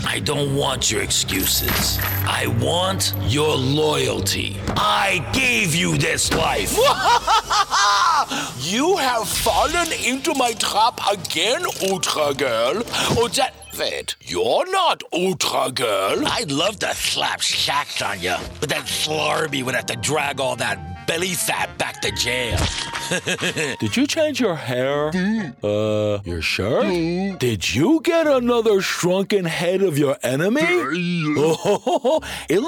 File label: Animation Demo